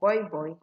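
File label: "boyboy", Nigerian Pidgin, female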